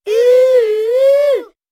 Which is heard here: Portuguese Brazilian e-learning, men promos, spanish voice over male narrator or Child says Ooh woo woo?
Child says Ooh woo woo